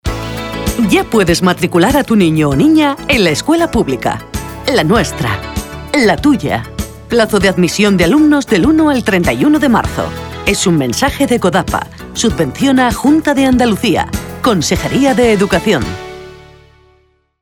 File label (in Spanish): anuncio matricula